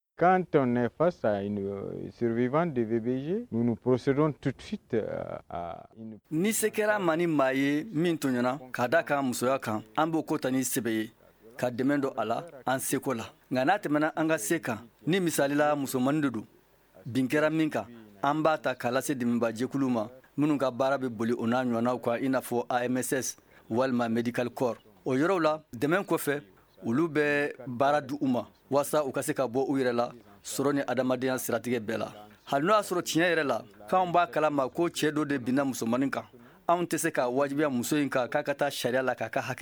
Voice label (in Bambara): magazine bamanankan